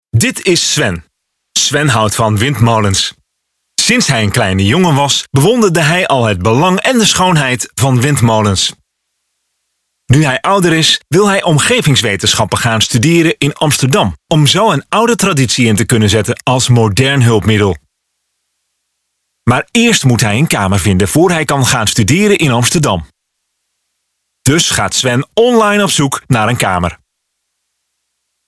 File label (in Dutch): Bedrijfs Video